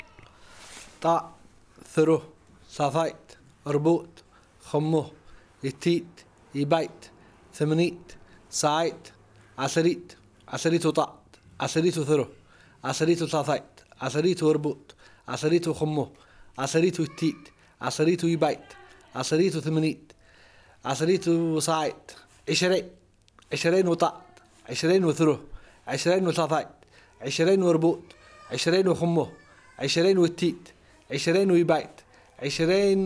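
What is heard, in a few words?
1 to 50 masculine, Mehri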